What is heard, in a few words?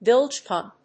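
"bilge pump", English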